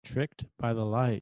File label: reverse speech